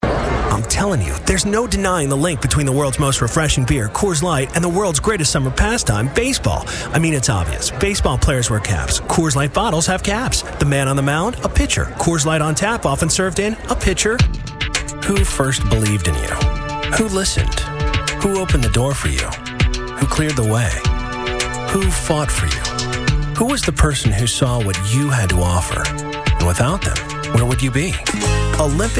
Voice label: men commercial

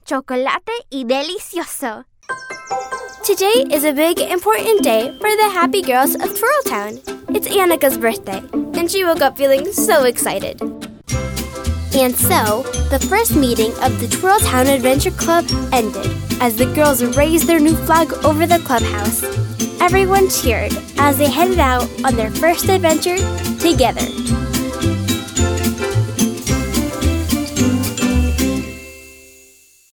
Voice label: kids commercial

